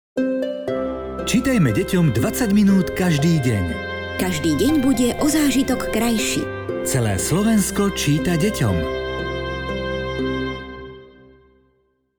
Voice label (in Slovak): spot